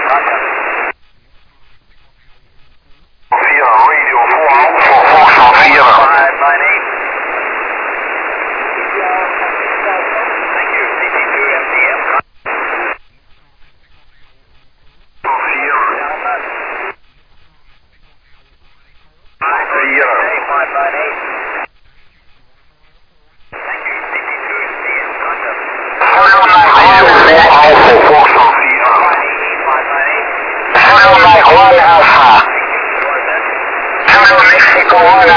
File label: USB 14MHz, amaterske radio; CQWWSSB